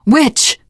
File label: warn witch, teengirl; survivor voice